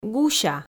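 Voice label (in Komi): "гуся", Komi, female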